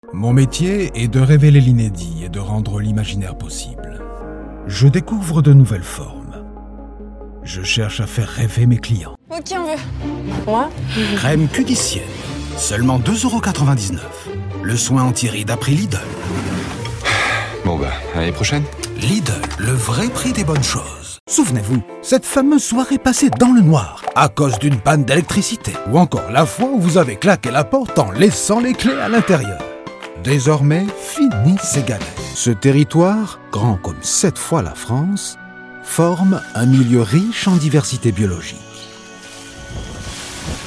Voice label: French Voice Over